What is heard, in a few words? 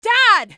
scared; eli lab